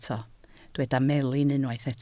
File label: "melin", Welsh